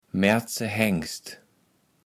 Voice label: "Märtse Hängst", kaevels platt

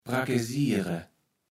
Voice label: "prakesiere", Platt

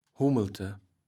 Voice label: "Hummelte", kaevels platt

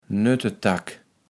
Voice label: "Nöttetack", Platt

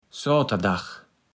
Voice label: "Ssoterdag", platt

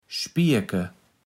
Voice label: "Spierke", platt

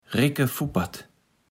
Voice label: "ricke Fuppert", Platt

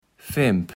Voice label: "Femp", Platt

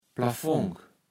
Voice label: "Plavonk", kaevels platt

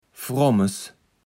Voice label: "Frommes", Platt

